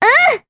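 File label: Vox Japanese; Cleo; kingsraid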